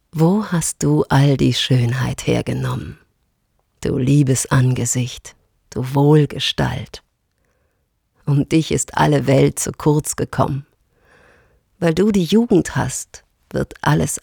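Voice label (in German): Erzählung; LOFT VOICES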